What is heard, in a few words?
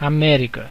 "america", pt BR; gcompris; geography